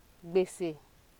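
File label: "gbese", Nigerian Pidgin, female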